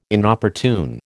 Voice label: "Inopportune", American

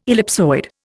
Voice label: "ellipsoid", Indian

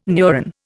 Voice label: "neuron", Indian

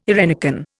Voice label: "eirenicon", Indian

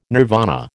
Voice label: "nirvana", American

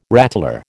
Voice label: "rattler", American

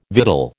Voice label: "victual", American

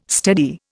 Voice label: "steady", Indian

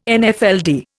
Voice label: "Nfld", Indian

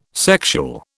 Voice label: "sexual", British

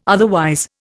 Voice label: "otherwise", Indian

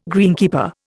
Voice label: "greenkeeper", Indian

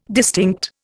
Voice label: "distinct", Indian

